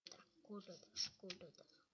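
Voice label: "pick", spoken word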